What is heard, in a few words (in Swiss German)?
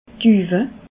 "duuwe", Alsace